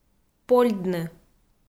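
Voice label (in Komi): "польдны", Komi, female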